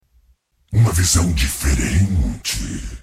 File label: voice portugues brasileiro brazilian portuguese; khazix; league of legends